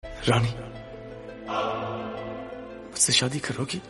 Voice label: funny